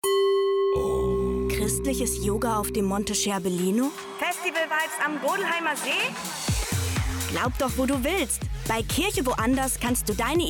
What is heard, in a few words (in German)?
Radiospot